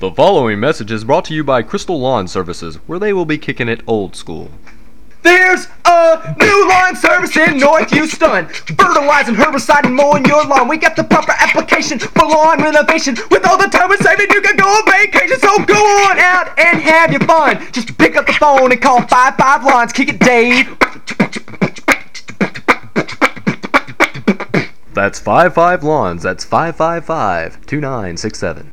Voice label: Rap Commercial